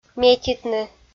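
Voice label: "метитны" Komi, female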